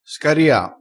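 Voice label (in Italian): "scaria", dialettale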